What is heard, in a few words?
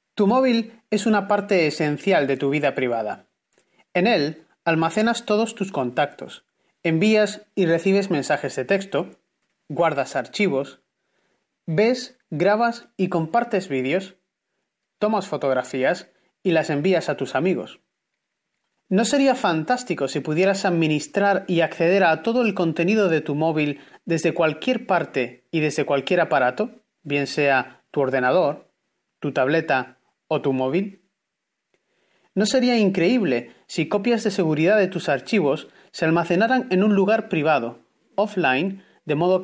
spanish voiceover